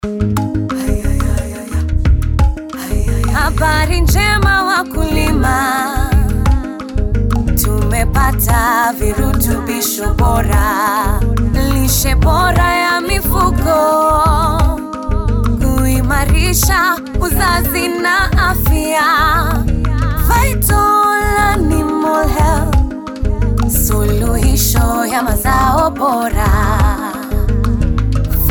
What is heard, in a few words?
Swahili Jingle; Vital Animal Health